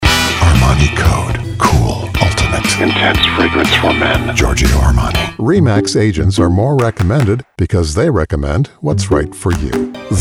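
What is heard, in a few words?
Commercials, voice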